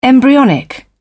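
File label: "embryonic", British English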